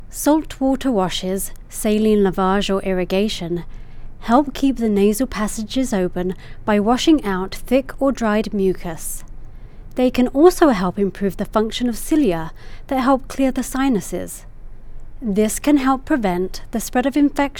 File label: nasal